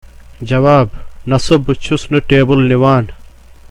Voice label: Spoken Kashmiri, Reply: No, I am not taking away the table.